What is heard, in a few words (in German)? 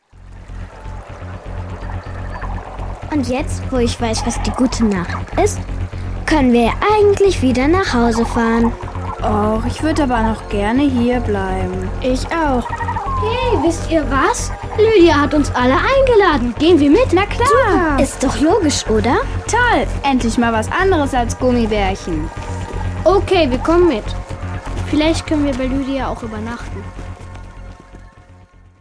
Hoerszene; Die Gute Nachricht; Hoerszene 7